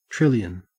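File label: "trillion", Australian English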